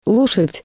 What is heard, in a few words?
"horse", Russian; лошадь; Rosetta Stone Russian